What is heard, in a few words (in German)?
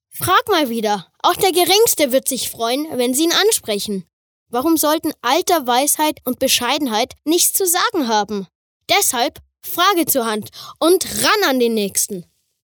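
commentary, Freundlich; Frag mal wieder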